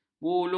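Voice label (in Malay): "bulu", Malay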